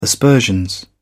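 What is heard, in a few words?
"aspersions", English, British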